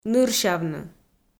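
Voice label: "нырсявны", Komi, female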